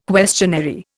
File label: "questionary", Indian